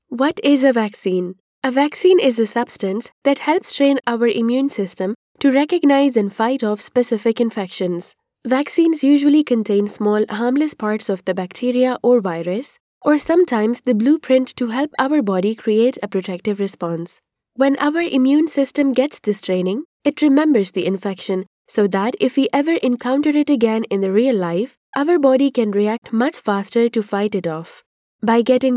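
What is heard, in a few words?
Pakistan, female, English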